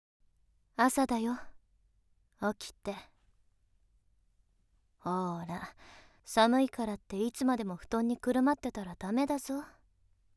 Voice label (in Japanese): ヒロインによる目覚まし音声